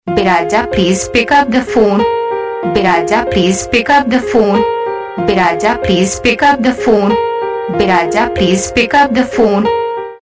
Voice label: pick up the phone saying, Ringtones